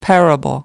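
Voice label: "parable", American English; AmericanBritish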